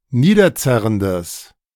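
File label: "niederzerrendes", German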